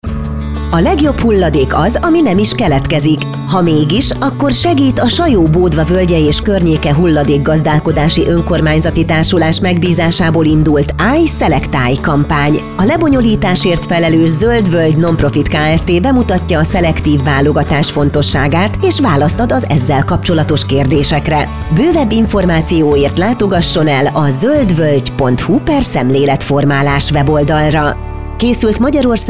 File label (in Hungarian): Spot RADIO